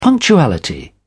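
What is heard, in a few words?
"punctuality", British English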